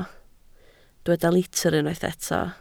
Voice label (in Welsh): "litr" Welsh